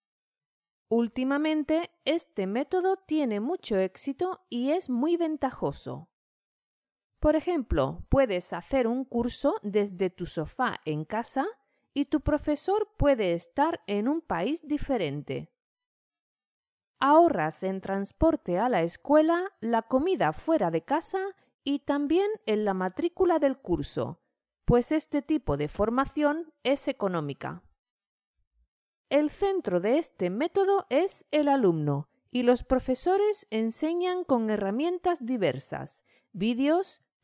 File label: Spanish reader e-learning